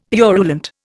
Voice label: "purulent", Indian; Dictionary